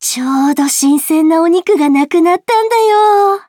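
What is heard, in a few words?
start, Japanese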